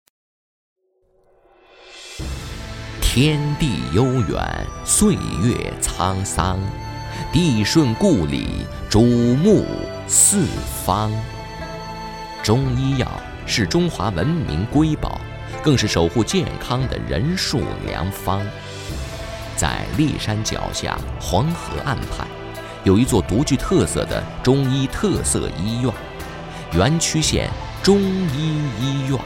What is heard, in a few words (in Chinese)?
宣传片 医院